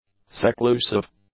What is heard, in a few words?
"seclusive", English; adjectives